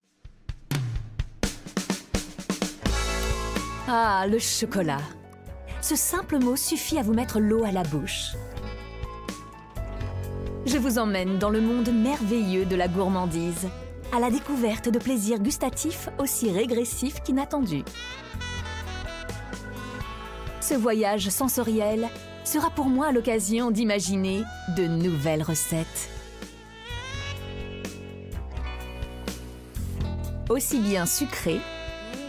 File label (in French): Narration Intro; RACHEL KHOOS CHOCOLATE; voix de la serie rachel khoos chocolate